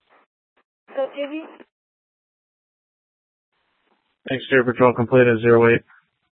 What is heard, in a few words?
Court Security Dispatch, scanner; Maricopa County (P25) - Thompson Peak